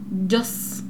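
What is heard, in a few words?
"platre", Arabic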